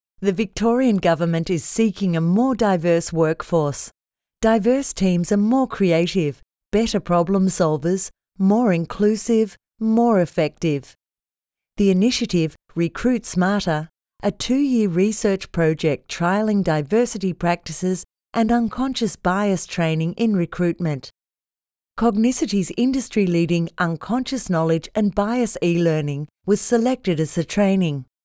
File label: Corporate Explainer Video